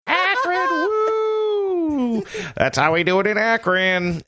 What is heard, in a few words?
saying akron whoo thats how we do it in akron funny taunting